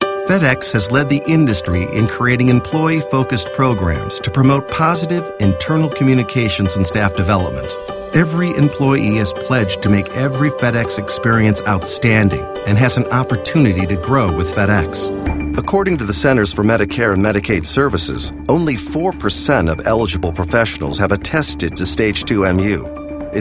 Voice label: Narration